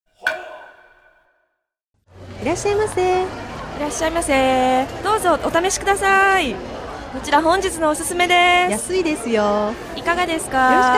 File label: dialog; JapanesePod101; Beginner Season 1